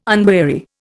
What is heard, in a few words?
"unweary", Indian